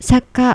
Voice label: "sakka", Japanese